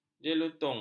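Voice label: "jeluntung", Malay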